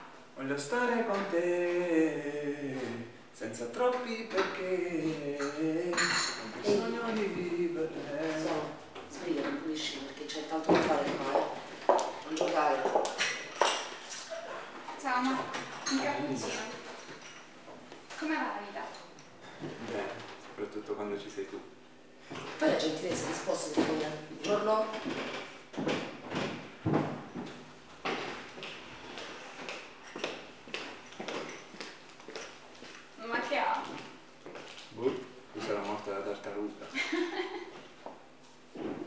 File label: footsteps creaking floorboards dialogue recording